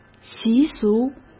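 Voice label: "习俗", Mandarin Chinese; hsk; chinese-flashcards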